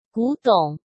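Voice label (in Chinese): "古董", Mandarin Chinese; hsk; chinese flashcards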